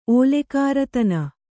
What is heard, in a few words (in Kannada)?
"ಓಲೆಕಾರತನ", Kannada; ōlekārṛatana; noun